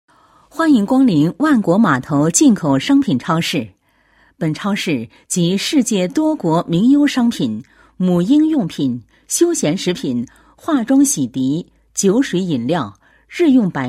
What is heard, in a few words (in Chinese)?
女 欢迎词; 万国码头进口商品超市欢迎词